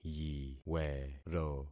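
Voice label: "yiwero", concatenated syllables; Affixing experiment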